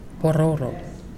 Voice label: "diarrhea", Pingelapese

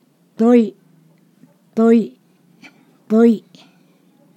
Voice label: "urine", Ponosakan

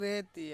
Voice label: "reti", Ansus; jarum

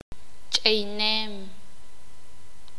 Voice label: sakapulteko; golpear pegar

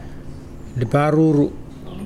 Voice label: "butterfly", Mokilese

